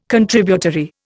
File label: "Contributory", Indian